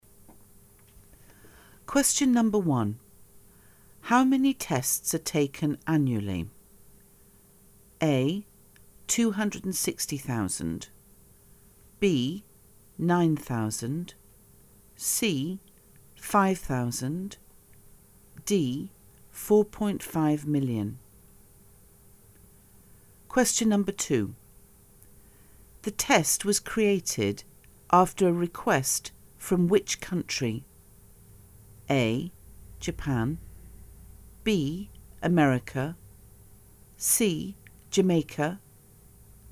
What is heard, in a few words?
edu